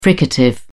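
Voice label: "fricative", British English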